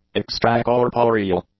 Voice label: "extracorporeal", english; adjectives

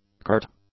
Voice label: "curt", English; adjectives

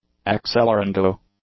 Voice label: "accelerando", english; adjectives

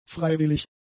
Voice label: "freiwillig", German; adjectives

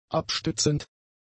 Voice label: "abstützend", German; adjectives

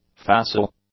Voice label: "facile", english; adjectives

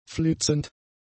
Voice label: "flitzend", German; adjectives

